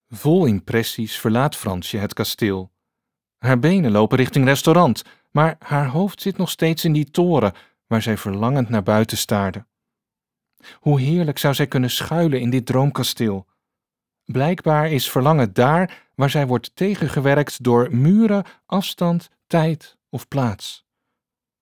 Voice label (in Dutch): Luisterboek roman